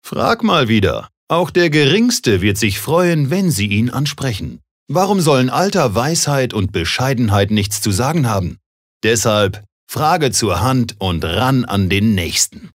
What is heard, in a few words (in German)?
Werbung Freundlich